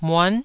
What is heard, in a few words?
"muan9", Taiwanese